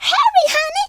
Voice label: hurry, Southern Belle; speech